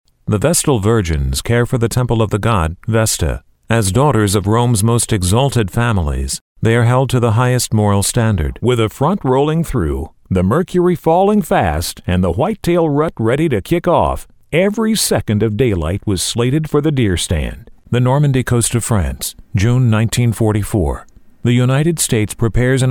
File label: Documentary